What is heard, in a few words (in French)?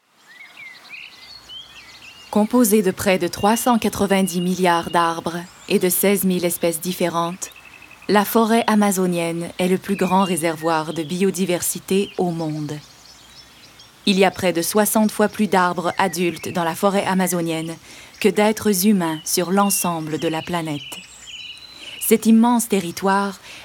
voix documentaire